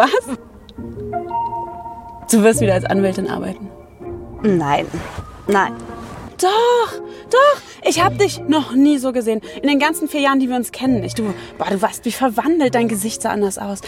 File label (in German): Dialog Lebendig